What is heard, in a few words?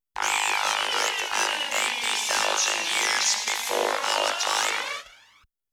vocal effect samples